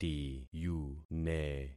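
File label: "tiyune", concatenated syllables; Affixing experiment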